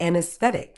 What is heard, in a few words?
"anaesthetic", Medical English; ˌæn.əsˈθet.ɪk; an-uhs-THET-ik